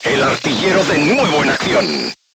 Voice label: voice message gunlayer healed, Spanish

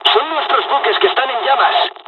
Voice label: dialogs spanish; usa; War Thunder

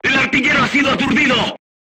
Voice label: voice message gunlayer contused, Spanish

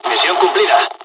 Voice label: dialogs spanish; War Thunder

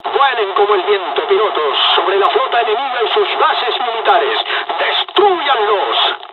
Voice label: dialogs spanish; War Thunder

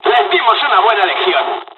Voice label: dialog, dialogs spanish; us midway; War Thunder

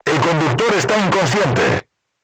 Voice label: voice message driver contused, Spanish

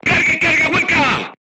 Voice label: voice message load HEAT, Spanish

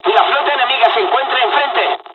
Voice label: dialog, dialogs spanish; us midway; War Thunder

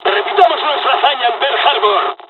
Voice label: dialog, dialogs spanish; War Thunder

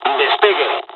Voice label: fly, dialogs spanish; War Thunder

